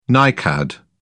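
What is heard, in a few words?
"nicad", British English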